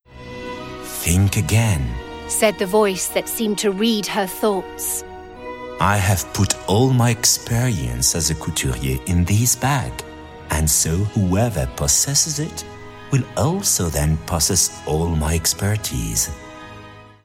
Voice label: conte, EN ENG; DIOR